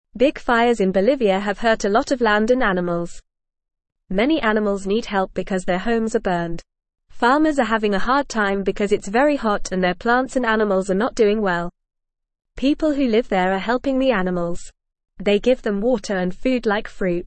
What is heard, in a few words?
English Newsroom Beginner FAST Reading; Big Fires in Bolivia Hurt Land and Animals